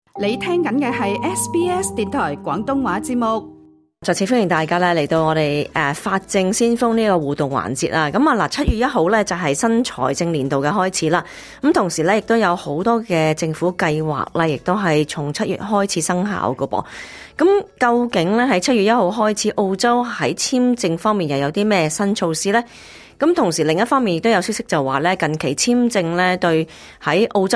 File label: cantonese; 【申請簽證須知】究竟在澳洲境內或境外申請簽證審批速度是否有分別？; 七月一日是新財政年度的開始，同時，亦有許多政府計劃也是從七月生效，究竟在七月一號起，澳洲在簽証方面有些甚麼新措施？; SBS Chinese